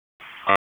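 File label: Dispatch, p25; WSO DISP 3; wake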